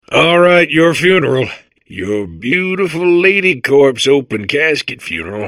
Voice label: babble; portal2